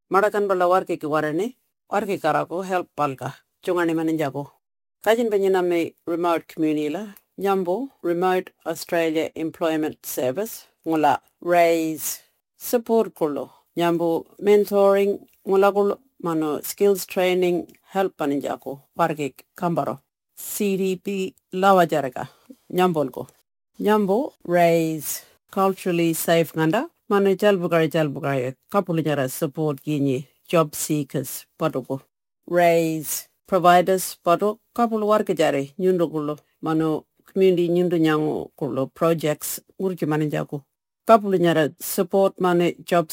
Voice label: Audio message Warlpiri; RAES